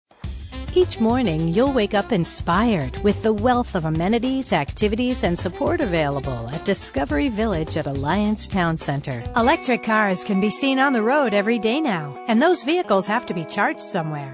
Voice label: Bi-Lingual English Spanish

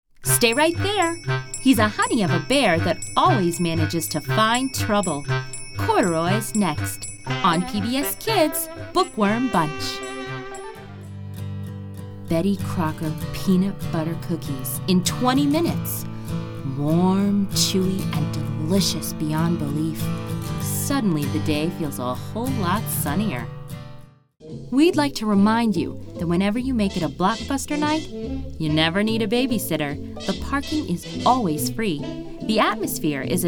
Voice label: VO Promo

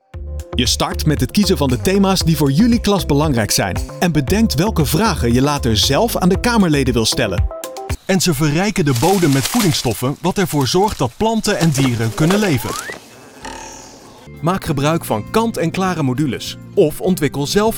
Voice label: Voice samples, Explainer

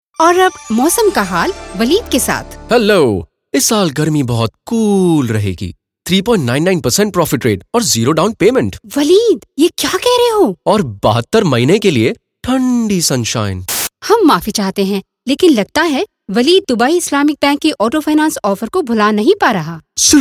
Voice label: Hindi

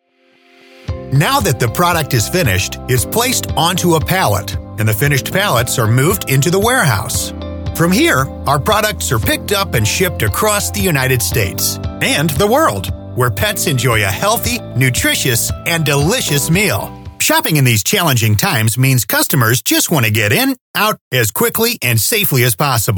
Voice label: Explainer Video

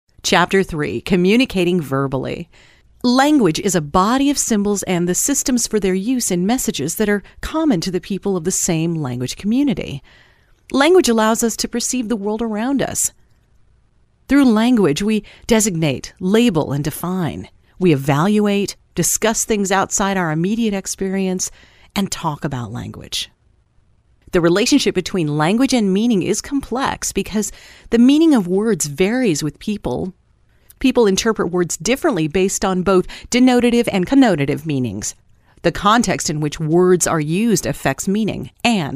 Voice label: E Learning

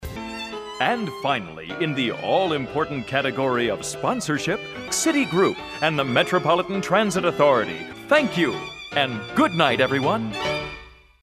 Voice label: Retro and cheery

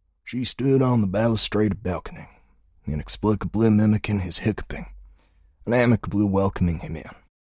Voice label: American Texas Older

